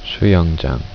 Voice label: swim pool, korean